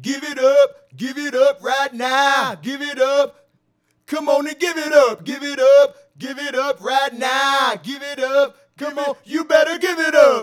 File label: Male Vocal; Sonic Foundry (Sony Creative Software); Mac Money